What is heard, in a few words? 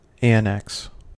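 "annex", American English; pronunciations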